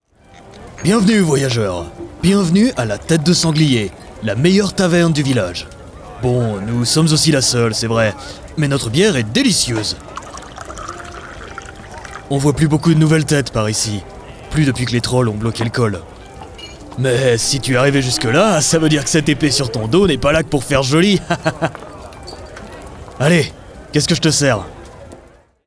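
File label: Character Natural (French)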